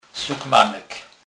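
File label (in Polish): "sukmanek", Kurpie dialect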